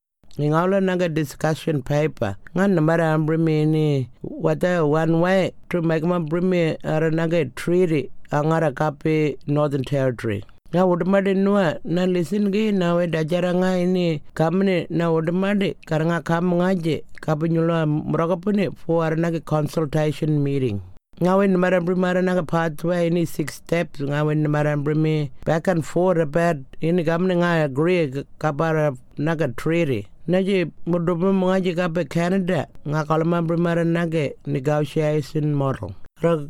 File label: Tiwi